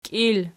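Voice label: "kiil" nominative, Archi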